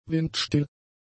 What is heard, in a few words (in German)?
"windstill", German; adjectives